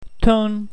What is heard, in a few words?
tone high, pinyin, Mandarin; tones